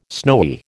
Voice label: "snowy", American

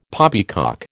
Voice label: "poppycock", American

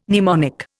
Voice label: "mnemonic", Indian

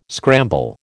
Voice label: "scramble", American

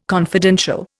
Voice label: "confidential", Indian

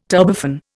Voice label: "turbofan", Indian

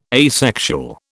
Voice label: "asexual", British

